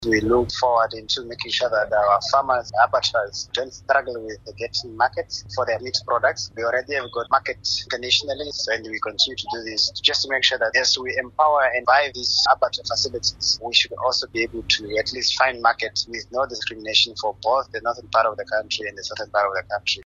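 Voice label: English; Meat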